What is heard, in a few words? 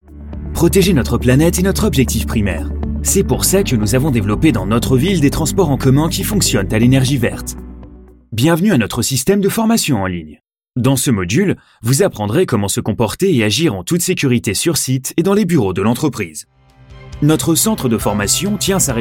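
French, Corporate; voice demos; GoLocalise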